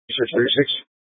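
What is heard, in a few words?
Incident Tactical, scanner audio recording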